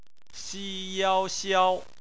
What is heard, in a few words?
"xiao", Chinese; phonetics